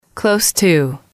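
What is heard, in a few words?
"close To", female, english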